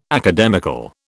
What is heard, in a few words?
"academical", British